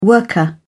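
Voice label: "worker", British English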